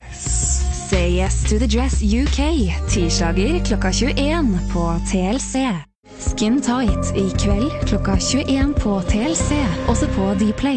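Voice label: Norwegian female TV announcer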